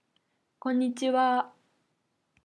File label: Hello Japanese